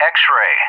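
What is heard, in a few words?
"X-ray", English; JTAC; Alphabet; DCS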